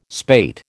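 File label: "spate", American English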